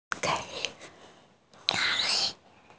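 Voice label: "gei3", Mandarin Chinese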